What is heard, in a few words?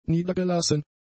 "niedergelassen", German; adjectives